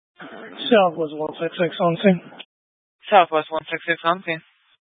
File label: Phx PD Fire Dispatch; K9 - FDCOM 9 - West; Phx Fire and Public Works; RWC